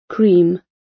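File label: "cream", British English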